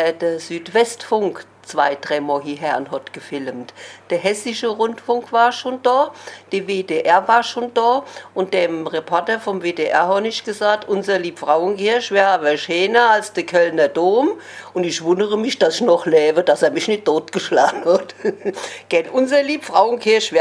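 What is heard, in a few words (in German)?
werbung, O-Ton Hunsrück; Oberwesel; Historische Weinwirtschaft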